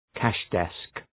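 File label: "cash desk", English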